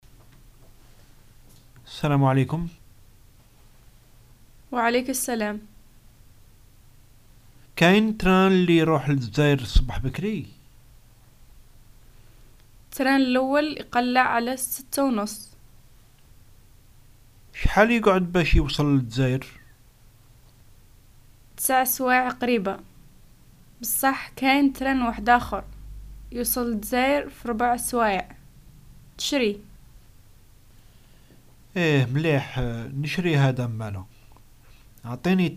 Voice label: dialogues, Algerian Arabic; train